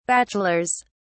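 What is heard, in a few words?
"bachelor's", British English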